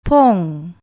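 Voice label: "pong", Malay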